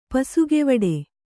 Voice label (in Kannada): "ಪಸುಗೆವಡೆ", kannada